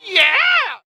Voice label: "yeah" cartoon, voice; ooh ahh

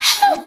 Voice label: "hoo"

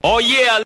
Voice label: "ah yeah", voice

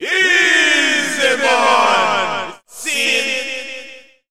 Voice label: "scien", voice; ooh ahh

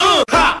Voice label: "uh ha" SCRATCH; ooh ahh

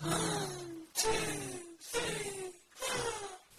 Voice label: "1 2 3 4" strange whispery men; Voice; numbers and letters

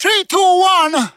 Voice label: "3 2 1" reggae; Voice; numbers and letters

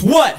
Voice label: "what", Voice

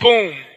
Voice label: "boom", Voice

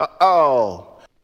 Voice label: uh oh; ooh ahh

